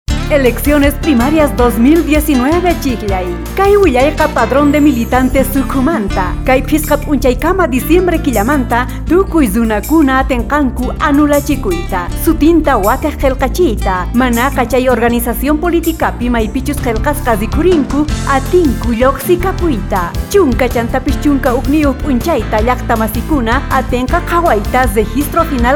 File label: quechua; cunia padron